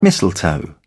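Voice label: "mistletoe", British English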